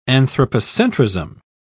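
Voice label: "anthropocentrism", British English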